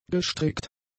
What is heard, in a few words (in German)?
"gestrickt", german; adjectives